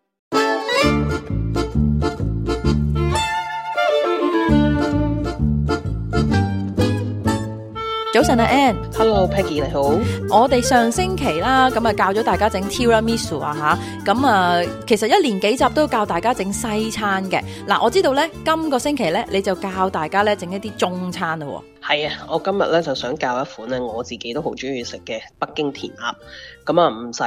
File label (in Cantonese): cantonese; 【氣炸鍋+油煙機】自家整北京填鴨 超簡易風乾食譜; 好煮意; SBS Chinese